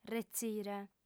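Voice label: "retzire", female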